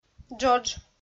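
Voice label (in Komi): "джодж", Komi, female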